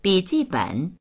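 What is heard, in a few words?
"bi3ji4ben3", Chinese; bǐjìběn; 笔记本; ノート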